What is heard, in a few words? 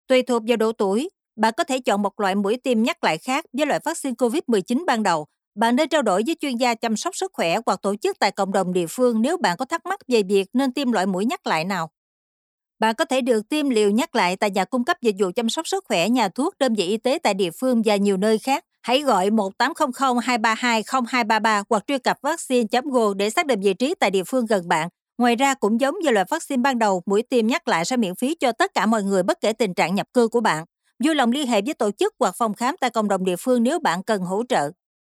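Vietnamese, female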